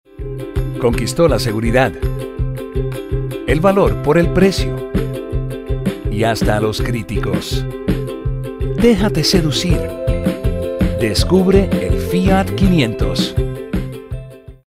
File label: sweet and sincere